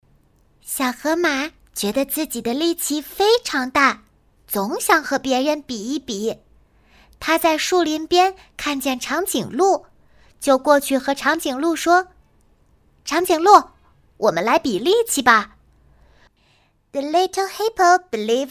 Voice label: 女 双语 中英故事童声; 小河马比力气

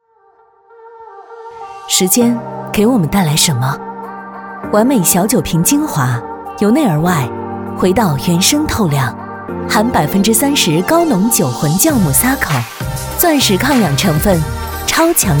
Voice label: 女 高端广告; 丸美小酒瓶

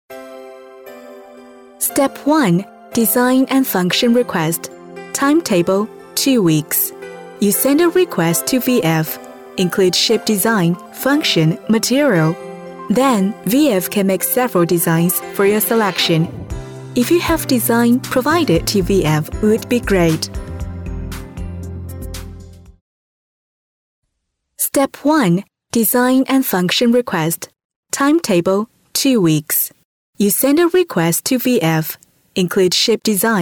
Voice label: 女 英文专题 产品操作解说

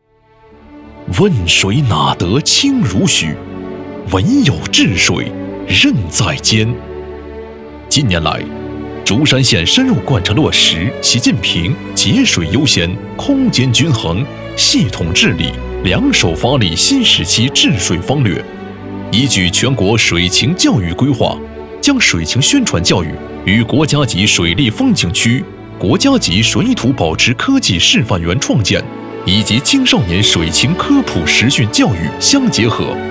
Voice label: 男, 汇报专题; 走进竹山县霍河水情教育基地

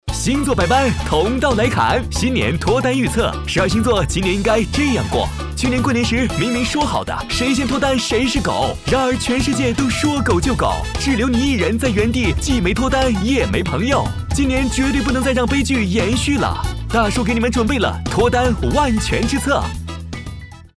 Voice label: 男 MG; 同道大叔

